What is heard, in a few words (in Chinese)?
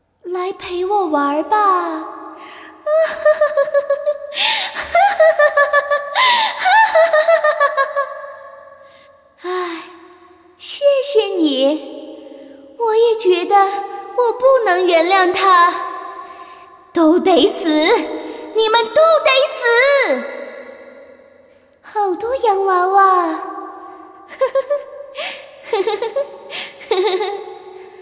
女 游戏 女鬼恐怖, 国语女声配音